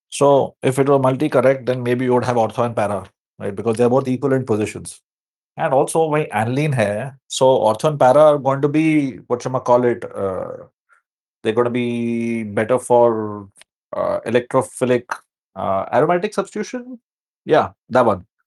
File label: voice message